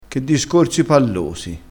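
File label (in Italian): "palloso", dialettale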